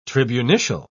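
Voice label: "tribunitial", British English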